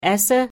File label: "esse", Pennsylvania Dutch; a in extra